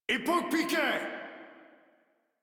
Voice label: Attack, Modular Corpus Male; Warframe